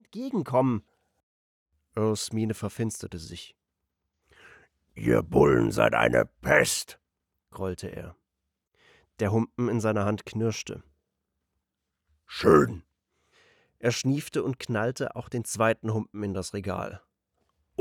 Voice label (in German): Hoerbuch; CleanUp